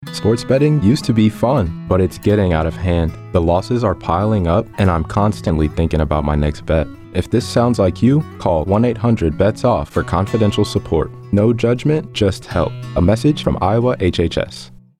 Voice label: Male